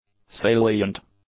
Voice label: "salient", English; adjectives